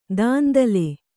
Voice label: "ದುಂದುಲು", Kannada

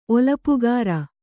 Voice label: "ಒಲಪುಗಾರ", Kannada; olapugāra; noun

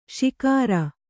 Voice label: "ಶಿಕಾರಾ", kannada

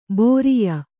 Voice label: "ಬರಿಯ", kannada

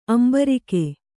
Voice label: "ಅಂಬರಿಕೆ", Kannada; ambarike; noun

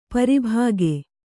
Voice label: "ಪ್ರಭಾಗ", Kannada

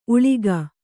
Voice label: "ಊಳಿಗಿ", kannada

